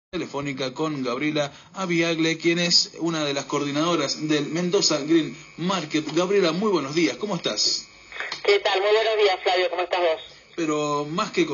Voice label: Male